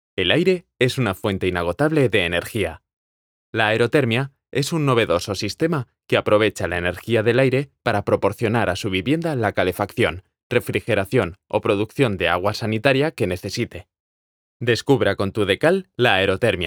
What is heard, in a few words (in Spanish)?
LOCUTOR DEMO CUÑA PAUSADA